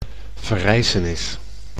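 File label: "verrijzenis", Dutch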